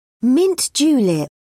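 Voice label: "mint julep", British English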